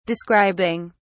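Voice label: "describing", British English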